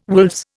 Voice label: "wolves", Indian